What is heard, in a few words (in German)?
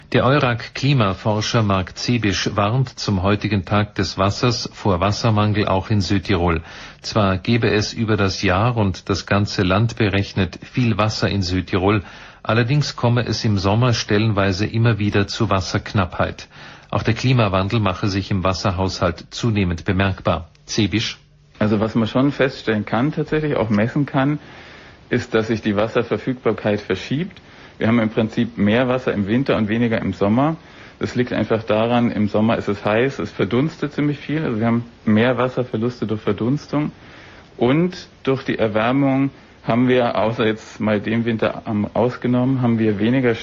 Radio; Rai Südtirol; EURAC Klimaforscher warnt vor Wassermangel